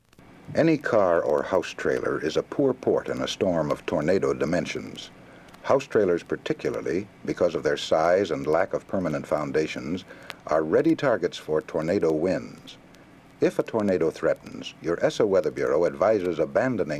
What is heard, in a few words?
tornado announcement safety; ESSA